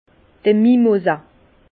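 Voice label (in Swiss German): "mimosa", Alsace Bas Rhin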